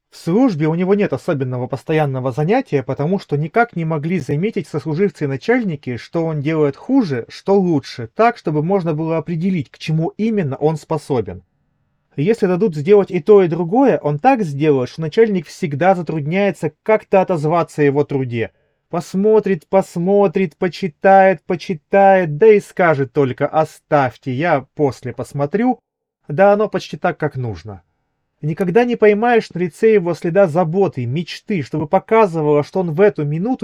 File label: cable mic; qcy h4